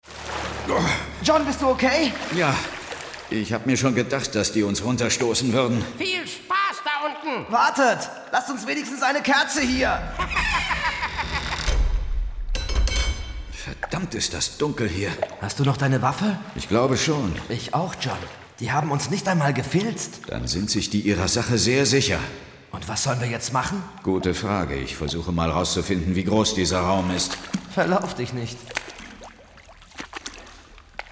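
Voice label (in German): Hörspiel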